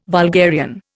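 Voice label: "vulgarian", Indian English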